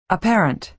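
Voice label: "apparent", American English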